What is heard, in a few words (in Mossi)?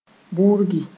"burgi", moore